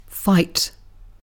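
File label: "fight", British English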